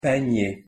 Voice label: pronunciation fr panier